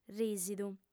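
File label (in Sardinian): "rìsidu", female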